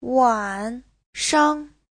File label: "wan3 shang5", Chinese